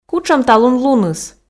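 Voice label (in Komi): кутшӧм талун луныс, Komi, female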